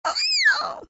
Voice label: mouse exclaim; Toontown Online